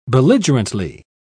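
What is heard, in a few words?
"belligerently", British English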